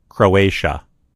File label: "croatia", en US; gcompris; geography